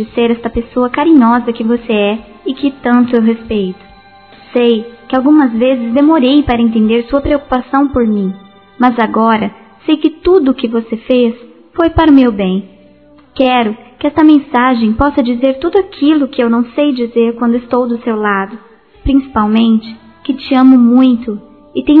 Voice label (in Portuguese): Aniv. Mãe Evangélico Feminino, Telemensagens; Aniversário Infantil